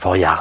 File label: LoFi Words, LoFi Vocals